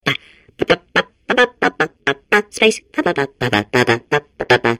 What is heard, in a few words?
babble